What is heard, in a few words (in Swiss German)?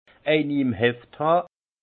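"heft han", alsace Haut-Rhin; vin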